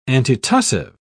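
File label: "antitussive", British English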